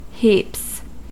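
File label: "heaps", US English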